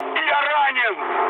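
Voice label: Russian damaged pilot mood low, speech; War Thunder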